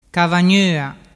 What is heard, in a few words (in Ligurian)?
"cavagnoa", dialettu